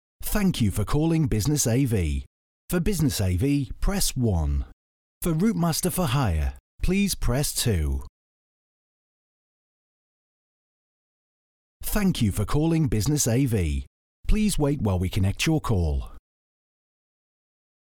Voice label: Phone